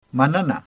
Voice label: thanks, pashto

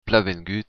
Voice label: welcome, occitan

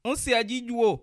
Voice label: i dont know, bassa

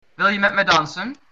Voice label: dance, dutch

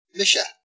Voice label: my name is, Irish

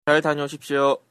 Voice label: bon voyage, Korean

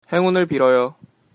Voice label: good luck, Korean

